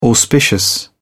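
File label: "auspicious", British English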